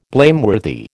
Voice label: "blameworthy", American